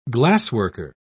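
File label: "glassworker", British English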